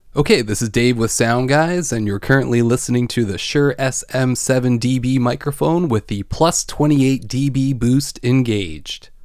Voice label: 28dB Boost; shure sm7db; SMoost

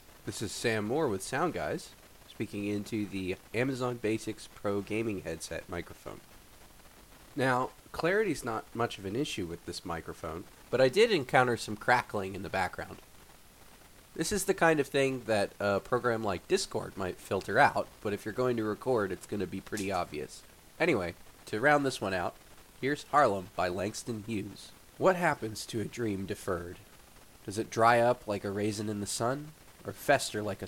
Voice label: AmazonBasics Pro mic

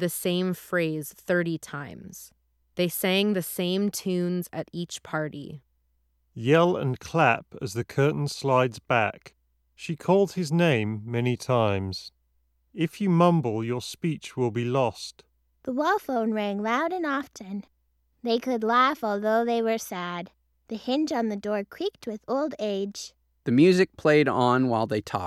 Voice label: HyperX Cloud III ideal microphone sample

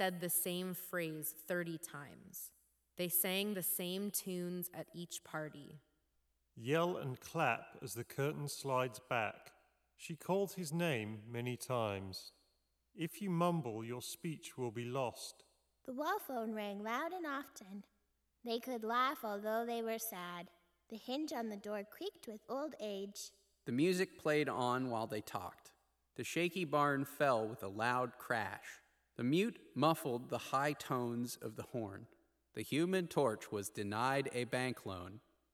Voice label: HS65 Surround Reverb microphone sample; Corsair

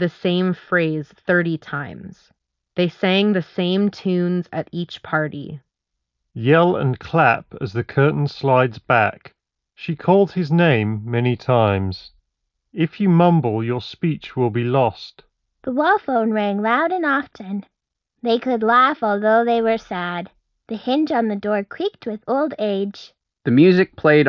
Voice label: Ideal microphone sample; Anker Soundcore Life Q30

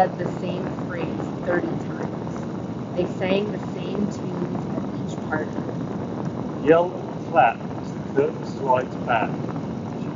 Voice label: Shure Aonic50 Gen2 Wind microphone sample

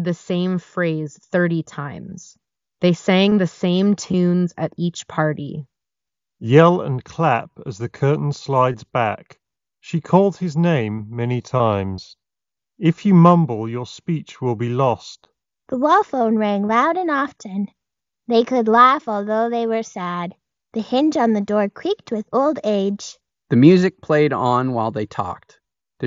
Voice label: Ideal microphone sample; Motorola Moto Buds S ANC

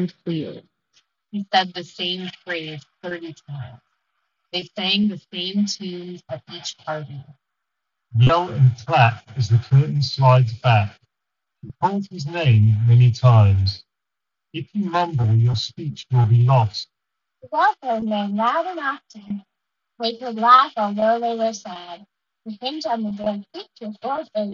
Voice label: Sony WF-1000XM5 Street microphone sample; Pre-release

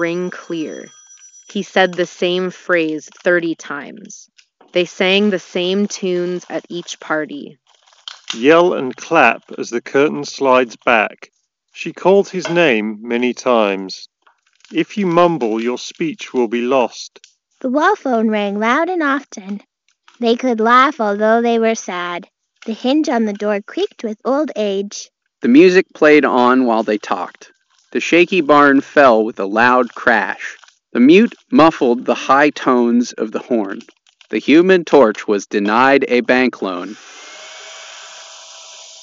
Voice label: Sony Inzone H7 Office microphone sample

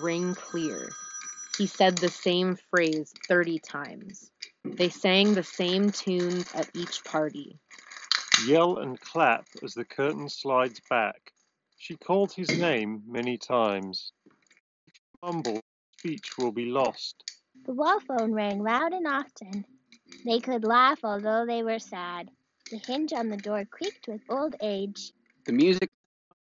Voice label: Apple AirPods Max Office microphone sample

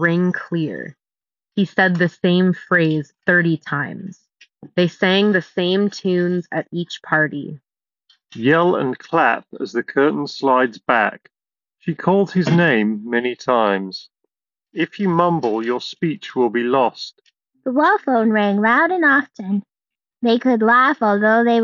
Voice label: Office microphone sample; Nothing Ear

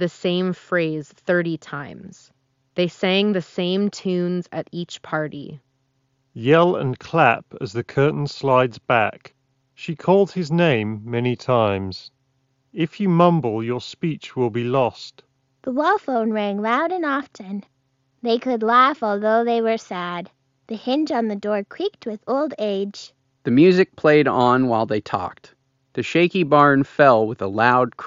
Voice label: Ideal microphone sample; Shokz Opencomm2

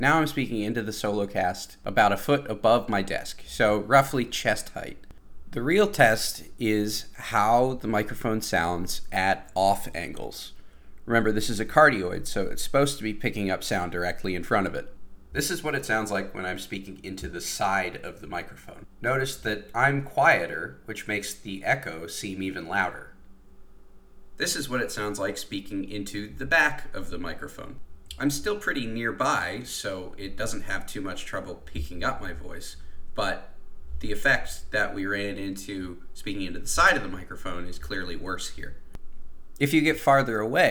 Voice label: HyperX Solocast sample

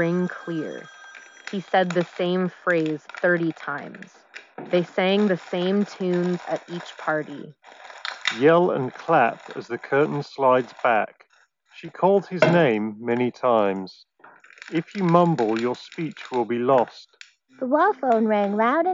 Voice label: Sony WH CH510 Office microphone sample

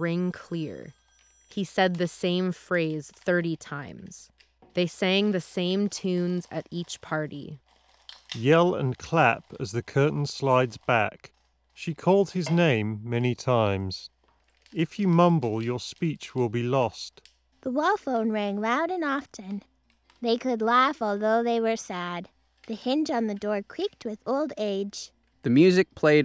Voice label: HyperX Cloud III Office microphone sample

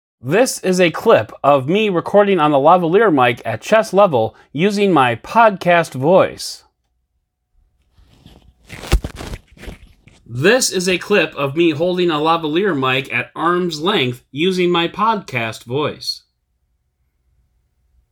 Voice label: Podcast voices

